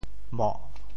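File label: "moh4", Teochew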